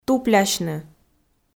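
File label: "туплясьны", Komi, female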